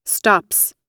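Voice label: "stops", American English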